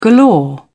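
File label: "galore", British English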